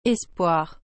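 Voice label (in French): "espoir", French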